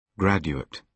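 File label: "graduate", British English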